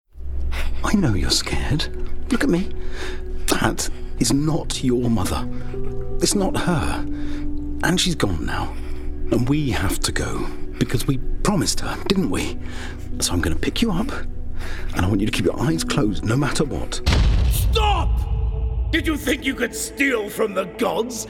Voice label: gaming character